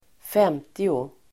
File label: "femtio", Swedish